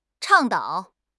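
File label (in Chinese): "倡导", Chinese; HSK6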